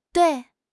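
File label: "dui4", Chinese; HSK; HSK2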